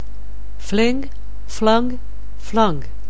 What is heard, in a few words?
"fling", anglais